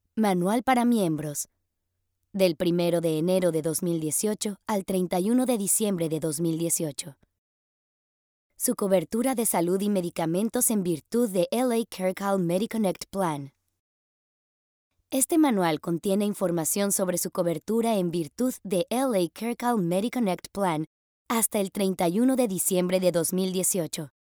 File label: e-Learning institutional